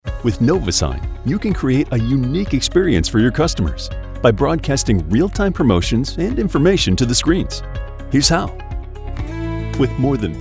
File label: Business Explainer